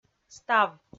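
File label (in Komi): "став", Komi, female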